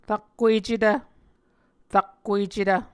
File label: "rakkuecetv", Muscogee